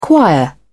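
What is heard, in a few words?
"choir", British English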